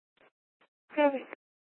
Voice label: Court Security Dispatch, scanner